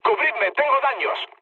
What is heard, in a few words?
aircraft damaged, mood low, speech; War Thunder